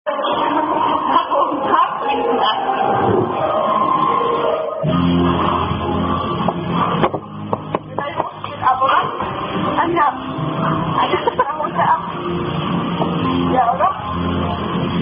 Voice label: German Women Playing Are You A Cardinal Drinking Game